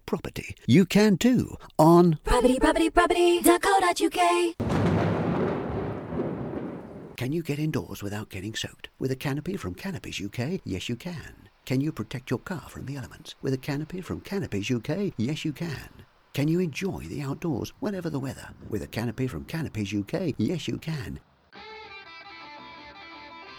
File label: Television spots